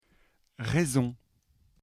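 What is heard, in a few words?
"raison" French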